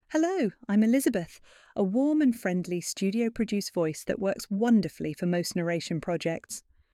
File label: professional british narrator, woman voice; voice over